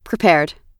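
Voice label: "prepared", American English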